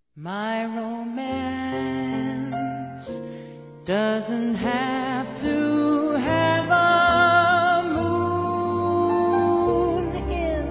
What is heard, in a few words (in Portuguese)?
BODAS PEROLA M, Telemensagens; Bodas e Casamento